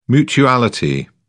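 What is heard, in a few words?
"mutuality", British English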